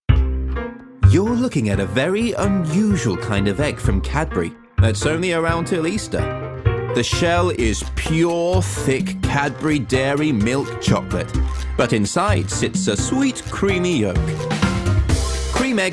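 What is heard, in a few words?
English male; lektoring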